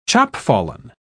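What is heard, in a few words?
"chopfallen", British English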